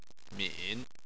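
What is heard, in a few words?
"min", Chinese, third tone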